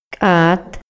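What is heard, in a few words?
Maya, male; HABLA Y LENGUAJE; INALI; MayaYuc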